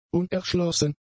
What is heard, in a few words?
"unerschlossen", German; adjectives